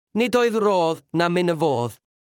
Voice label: Welsh proverb